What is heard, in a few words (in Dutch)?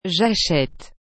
"j'achete", frans; acheter